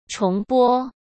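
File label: "重播", Mandarin Chinese; hsk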